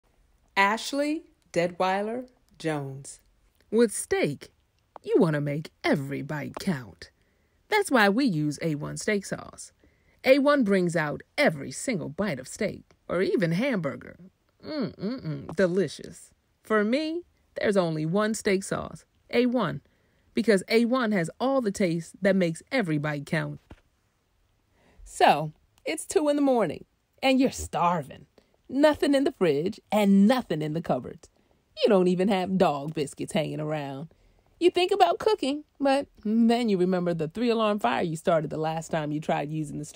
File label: voiceovers